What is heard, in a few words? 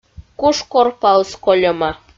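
"куш корпаыс кольӧма", Komi, female; kpv; audio dict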